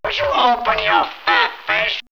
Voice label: Man Machine Fat Face, robot vox; X-Static Goldmine